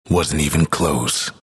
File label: announcer victory; dlc bastion